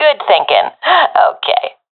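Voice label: agree, professional female; vo; Counter Strike Model Pack; CS:GO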